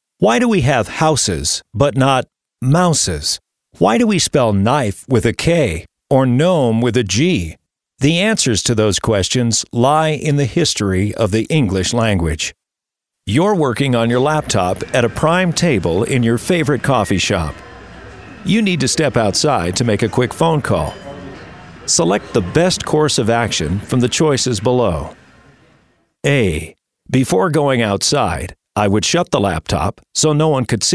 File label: eLearning Narration